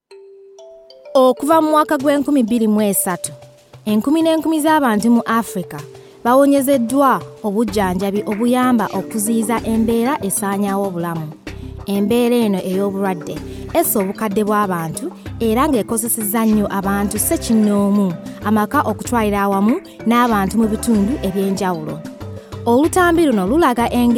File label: LG Documentary; GoLocalise